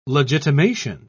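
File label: "legitimation", British English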